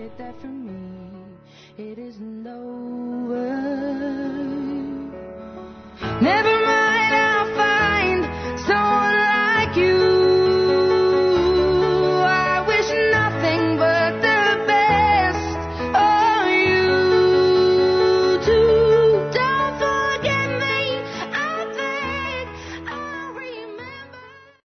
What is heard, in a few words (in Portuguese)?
Dia das Mães Perdão Masculino; Telemensagens; Telemensagens 2017